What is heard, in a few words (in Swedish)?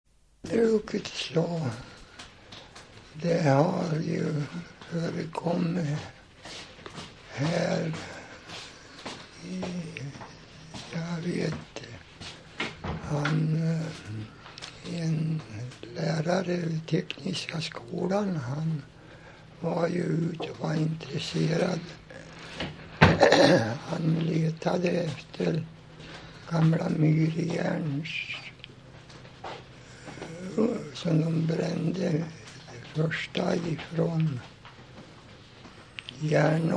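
Dingtuna Västmanland, dialekter; Folkminnen; Svenska sagor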